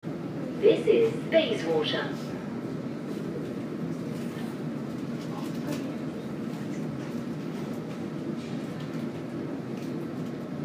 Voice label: This Bayswater, next Notting Hill Gate, to Wimbledon, Underground, District line